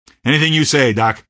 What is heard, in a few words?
squad affirm, male; Garry's Mod; hl2